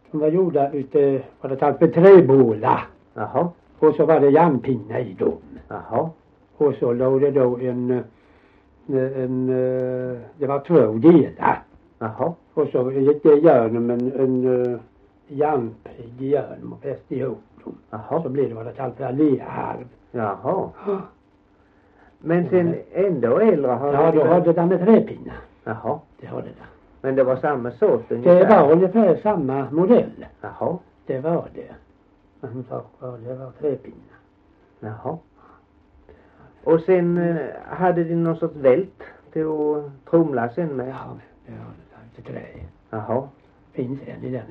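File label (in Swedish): Gällared Halland, Svenska sagor, dialekter; Folkminnen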